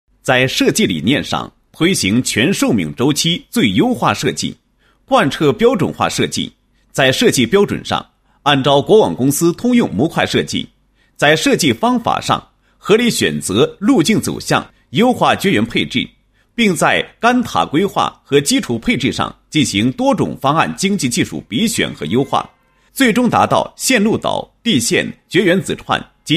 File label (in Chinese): 男 工程项目; 设计创新汇总材料 文字稿